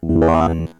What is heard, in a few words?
Vocoder